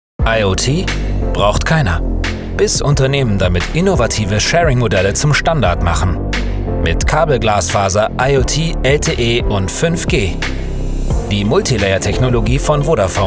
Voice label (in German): Werbung; Vodafone; LOFT VOICES